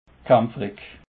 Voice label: "kaempferich", alsace

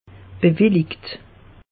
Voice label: "bewellikt", alsace; meteo

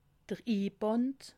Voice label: "inband", alsace

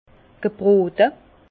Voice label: "gebrote", Bas Rhin; vin; grillé

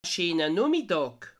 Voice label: "nohmiddag", alsace

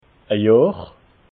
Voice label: "johr", alsace

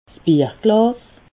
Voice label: "bierglas", alsace

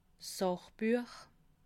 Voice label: "sachbuech", alsace

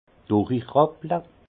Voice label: "durichrapple", alsace; foulage; vin

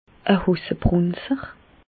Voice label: hossebrunzer, Bas Rhin; medecine; être incontinent

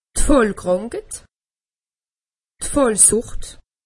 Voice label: "fallkranket", alsace; medecine

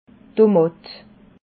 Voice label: "tomat", alsace

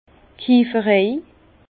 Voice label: "kieferei", Bas Rhin; tonnellerie

